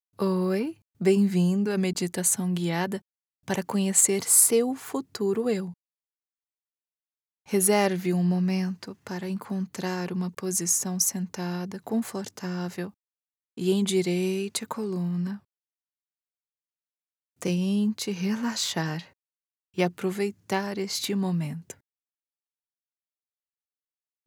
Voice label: meditation audiobook app warm soft deep calm female brazilian portuguese